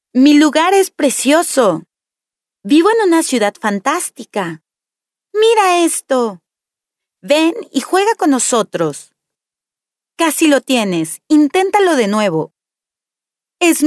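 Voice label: female children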